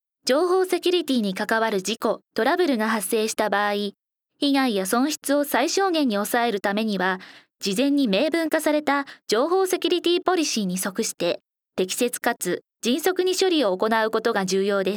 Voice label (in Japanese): ナレーション